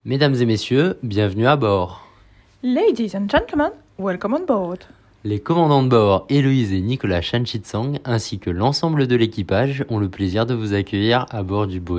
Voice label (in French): Annonce vocale personnalisee pour un mariage